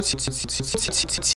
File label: dentals front, Romanian palatalization